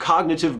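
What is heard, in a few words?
"cognitive", US English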